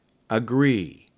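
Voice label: "agree", English; Rhyme Test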